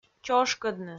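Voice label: "чошкӧдны", Komi-Zyrian, female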